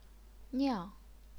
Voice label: "niang4", chinese pinyin